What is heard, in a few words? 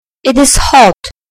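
"hot", British English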